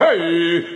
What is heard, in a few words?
"hey" male; ooh ahh